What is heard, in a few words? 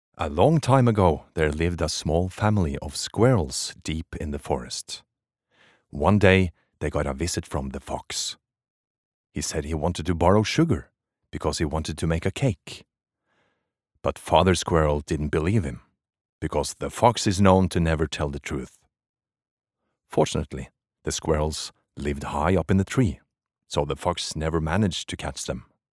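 Norwegian English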